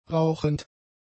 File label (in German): "rauchend", German; adjectives